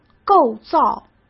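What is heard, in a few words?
"构造", Mandarin Chinese; chinese-flashcards; hsk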